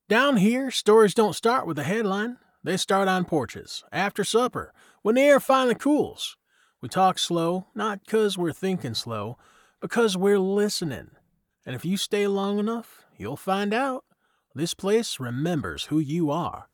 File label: English Southeastern